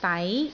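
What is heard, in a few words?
"dai2", Cantonese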